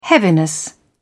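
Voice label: "heaviness", British English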